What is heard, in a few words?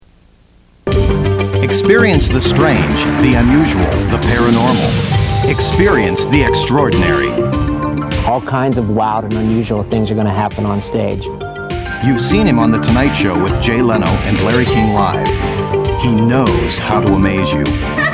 Radio